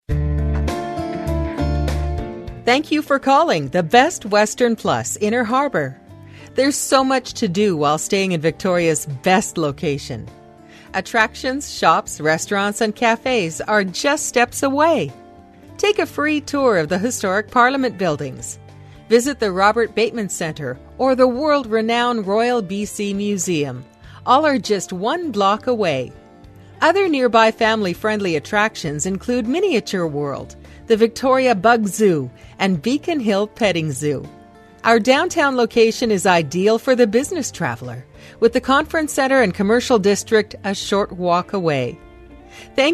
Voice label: On Hold